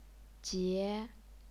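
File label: "jie", Chinese, second tone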